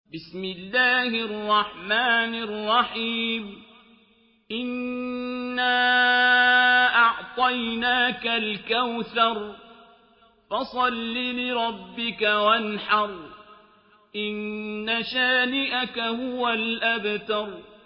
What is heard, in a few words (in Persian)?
tartil sooreh kosar; quran; abdulbasit